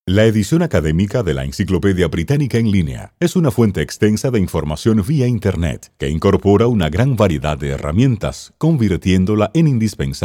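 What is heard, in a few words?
Spanish Latin American Narrative; GoLocalise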